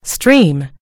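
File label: "stream", American English; gcompris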